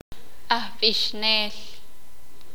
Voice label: sakapulteko; Cantor

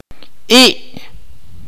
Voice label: "carry", Akateko

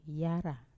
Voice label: "ara", stay up, Wamesa

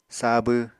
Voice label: "sabw", Apatani

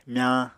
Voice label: "myañ", Apatani

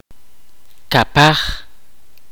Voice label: kaqchikel; twice

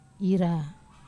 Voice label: "ra", Wamesa

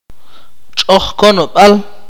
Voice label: "ethnic group", Akateko

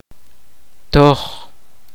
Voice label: kaqchikel; Offering

